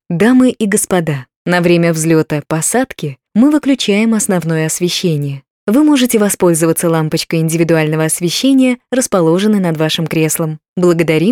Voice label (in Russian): Женский голос; golos zhenskij